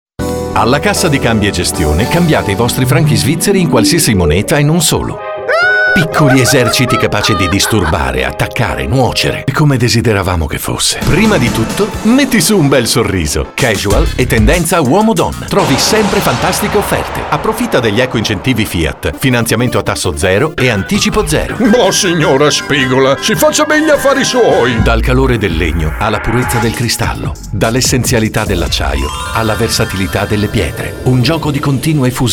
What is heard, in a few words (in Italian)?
speaker maschile